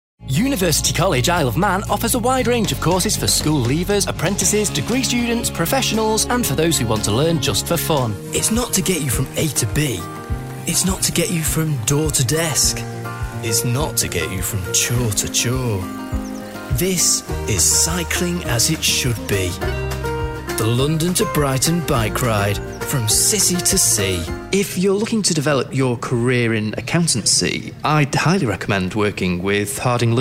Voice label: Voiceover Showreel